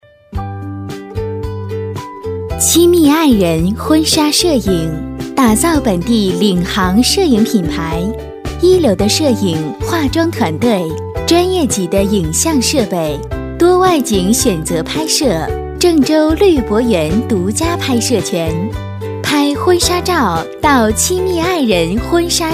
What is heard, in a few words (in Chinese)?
女 年轻甜美, 广告促销 [温柔抒情] 婚纱摄影